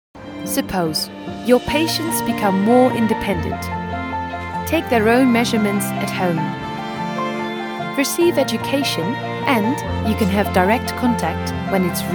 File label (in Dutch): Brits Engels